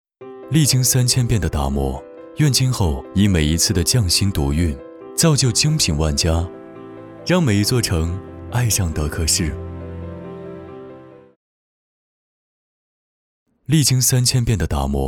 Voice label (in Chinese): 温暖时尚; 爱上德克士